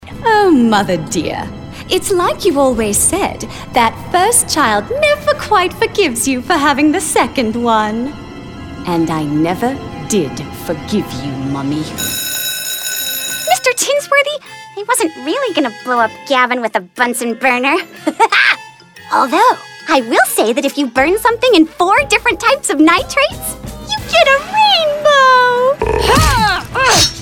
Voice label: Character

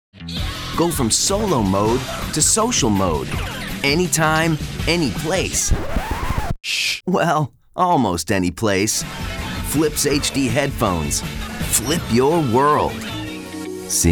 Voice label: Commercial VO

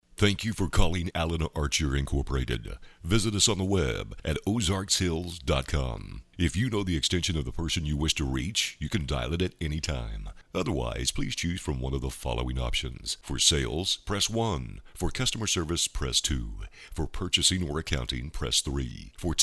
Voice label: PHONE AUTOMATED GREETING; AA INC

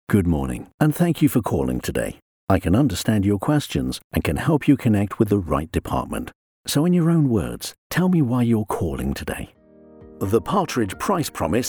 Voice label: IVR

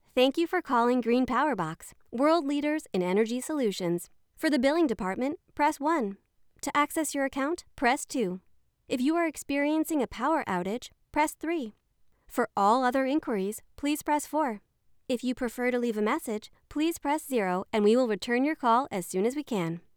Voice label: IVR

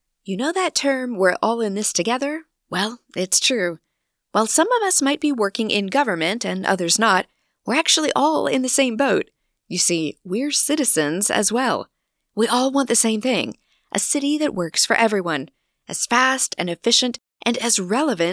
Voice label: Telephone Voting

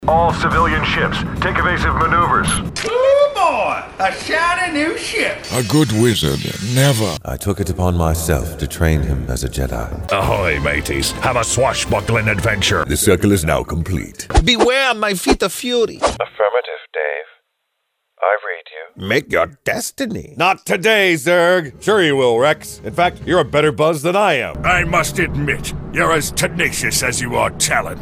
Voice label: Character

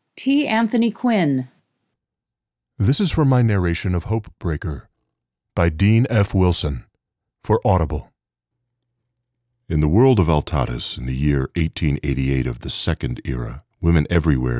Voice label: Audiobook

